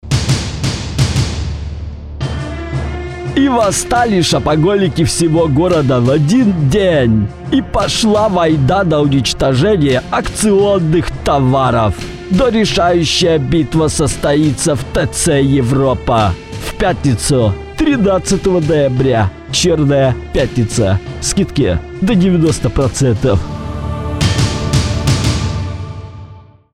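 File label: perevodchik filmov, film translator voice; volodarskij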